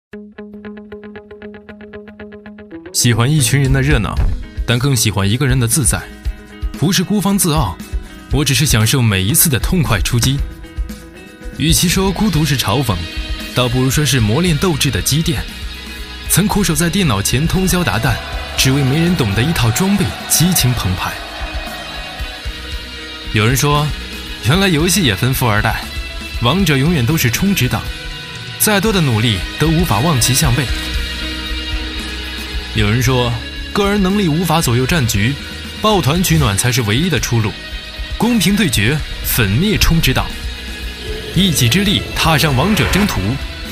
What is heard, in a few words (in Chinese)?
男 游戏宣传片 个性独白, 国语男声配音